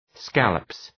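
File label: "scallops" English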